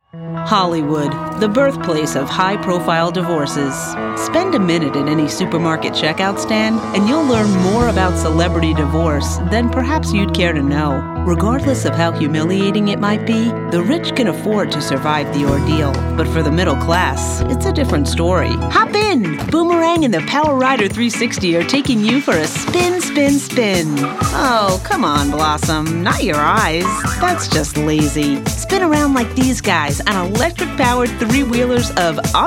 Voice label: Narration

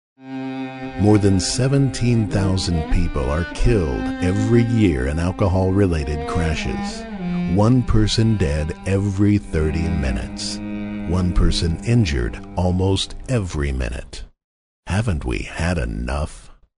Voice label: dramatic

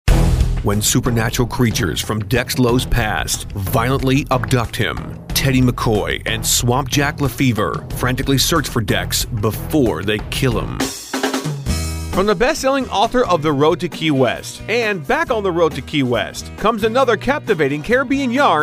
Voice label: Book Trailer

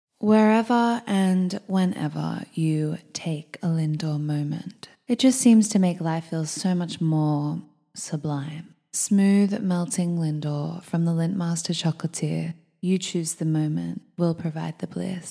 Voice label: voice over

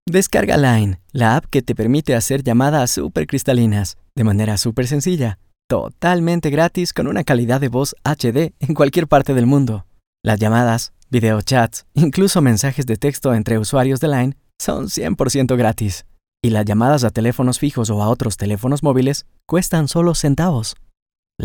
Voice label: Boy Next Door

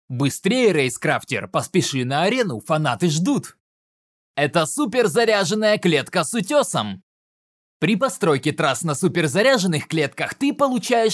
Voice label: Russian game voice over

